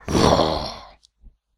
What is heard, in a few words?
Attack, human male evil; customnpcs